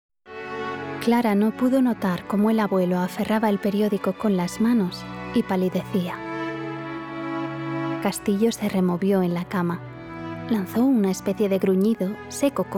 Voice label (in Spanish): Audiolibro